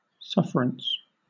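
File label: "sufferance", British English; pronunciations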